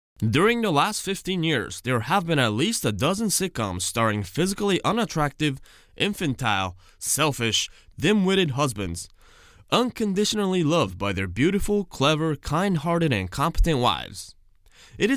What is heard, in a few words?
narration